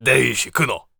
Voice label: warrior conversation emotion angry